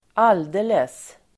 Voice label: "alldeles", Swedish